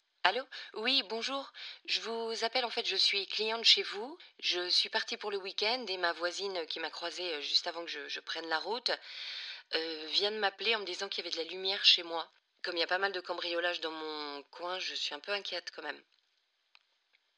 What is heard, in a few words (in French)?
pub comedie; imaprotect